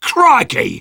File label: negative vocalization; Sniper